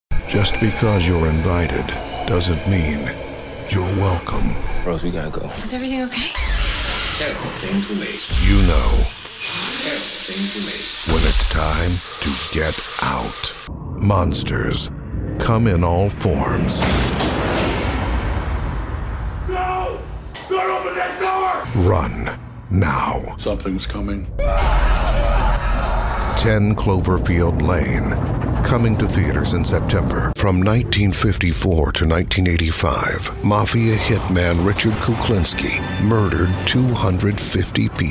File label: english international tv shows; actor experimentado de voz y cámara